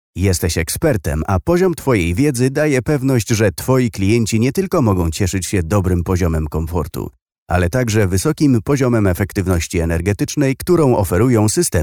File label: male polish voice over talent narrative